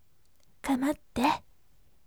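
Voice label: "kamatte", gentle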